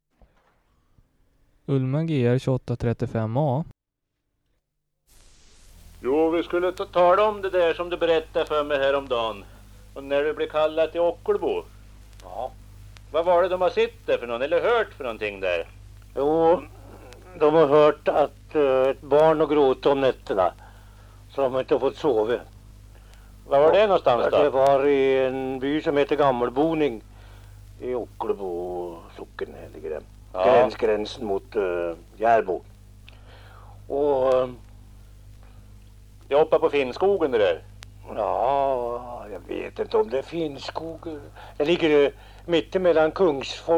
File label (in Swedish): Valbo, dialekter; Svenska sagor; Folkminnen